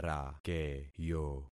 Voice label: "rakeyo", concat syllables; Affixing experiment